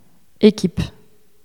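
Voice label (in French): "équipe", French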